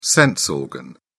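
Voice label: "sense organ", British English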